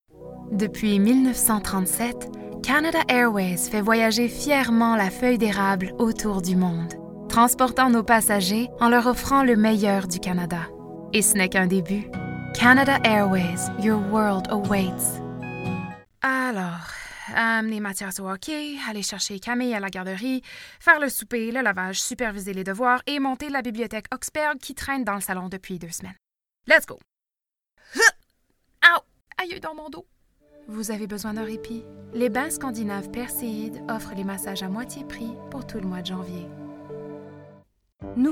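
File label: French Canadian, female; voice over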